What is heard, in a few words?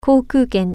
"plane ticket", Japanese